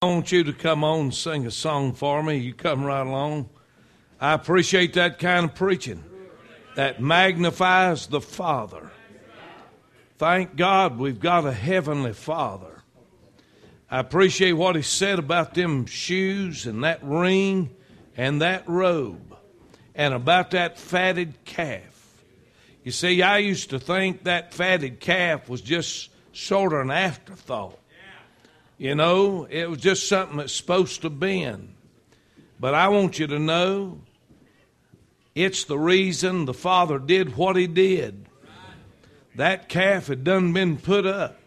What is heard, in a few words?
preacher